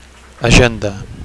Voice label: "agenda", Catalan; diary (Br.); datebook (Am.)

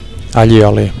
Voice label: "allioli", Catalan; (m) aioli; garlic mayonnaise

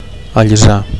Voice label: "allisar", Catalan; to smooth

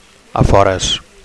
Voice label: "afores", Catalan; suburbs

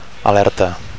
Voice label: "alerta", Catalan; (f) alert

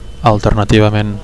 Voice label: "alternativament", Catalan

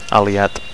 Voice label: "aliat", Catalan; ally

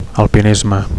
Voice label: "alpinisme", Catalan; mountaineering

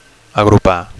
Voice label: "agrupar", Catalan; to bring together; to group (together)

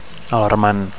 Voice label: "alarmant", Catalan; alarming

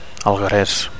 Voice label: "algueres", Catalan

